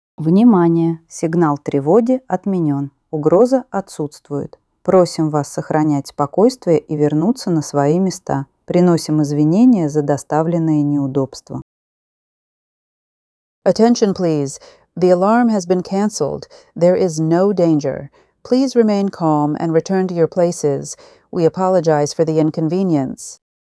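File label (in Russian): оповещение отбой тревоги возврат ru en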